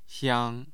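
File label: "xiang1", Mandarin Chinese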